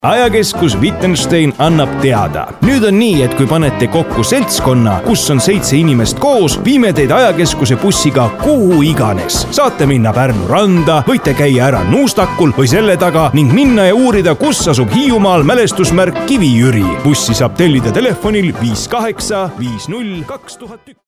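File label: Estonian, male, medium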